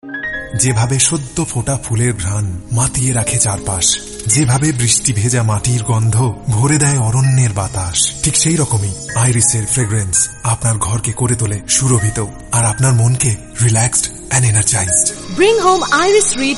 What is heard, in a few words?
Bengali M Ad; voice over